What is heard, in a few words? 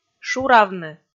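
"шуравны", Komi, female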